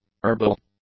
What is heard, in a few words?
"herbal", English; adjectives